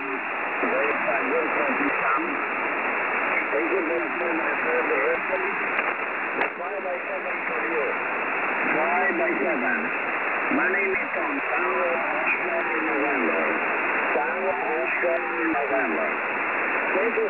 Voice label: qso 7153khz, Radio